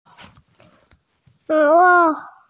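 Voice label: "ge1bo5" Mandarin Chinese, female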